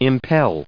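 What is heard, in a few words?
"impel", US English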